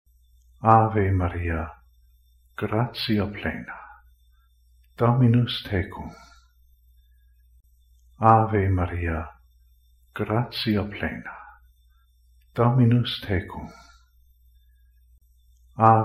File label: Ave Maria LATIN